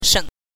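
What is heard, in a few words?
"sheng", neutral tone, Mandarin Chinese pinyin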